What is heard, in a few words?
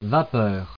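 "vapeur", French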